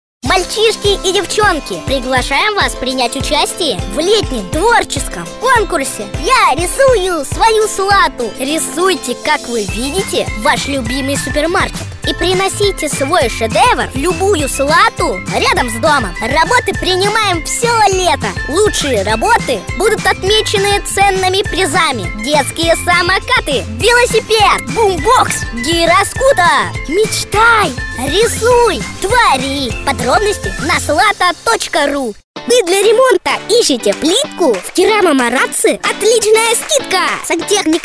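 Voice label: Detskiy golos, child voice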